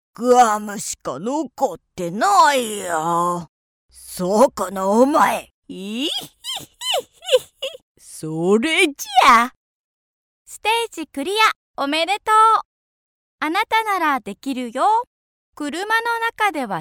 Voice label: female japanese voice over character